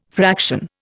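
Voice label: "fraction", Indian English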